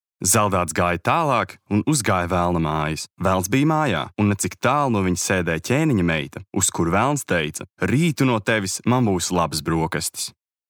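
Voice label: Pasaka, Latvian; LV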